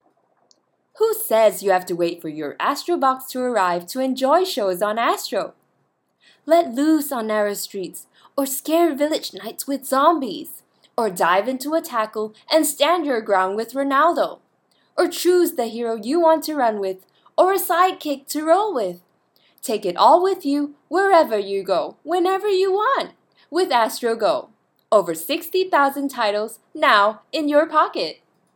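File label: ENGLISH VO Guide; Astro GO Digital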